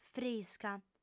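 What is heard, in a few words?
"frisca", Sardinian, female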